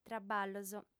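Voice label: "traballos", female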